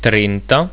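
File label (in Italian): "trenta", Italian; getal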